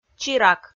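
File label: "чирак", Komi, female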